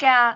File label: "gaak6", cantone; microsoft Hiu Maan